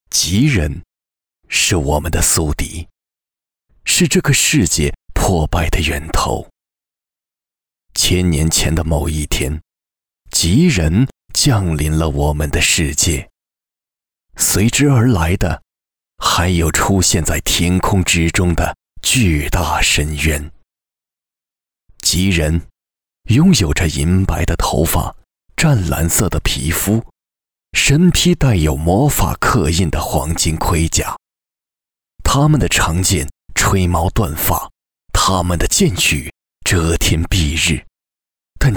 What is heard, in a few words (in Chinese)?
男 专题 故事磁性讲述